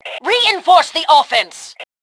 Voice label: Attack Reinforce, Female; T2 Female 02

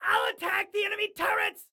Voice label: Self Attack Turrets, Excited Guy

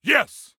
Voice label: "Yes", Soldier Male; Soldier Male 03

